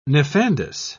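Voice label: "nefandous", British English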